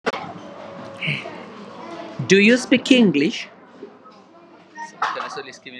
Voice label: voice message